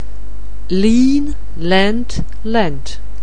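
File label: "lean", English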